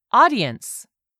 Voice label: "AUDIENCE", English; 3000 words

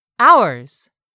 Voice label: "ours", English; 3000 words

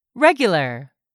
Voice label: "REGULAR", english; 3000 words

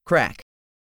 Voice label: "CRACK", English; 3000 words

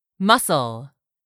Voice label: "muscle", English; 3000 words

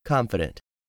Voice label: "CONFIDENT", English; 3000 words

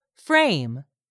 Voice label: "FRAME", english; 3000 words

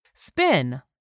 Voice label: "spin", English; 3000 words

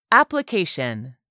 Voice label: "application", english; 3000 words

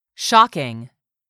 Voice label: "shocking", English; 3000 words

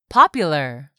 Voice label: "popular", English; 3000 words

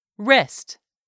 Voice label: "WRIST", English; 3000 words

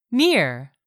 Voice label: "NEAR", English; 3000 words

